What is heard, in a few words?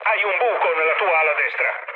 right wing mood low, speech it; War Thunder